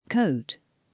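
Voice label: "coat", British English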